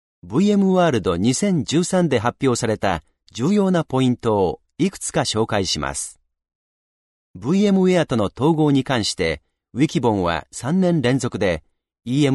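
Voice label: Japanese, male, corporate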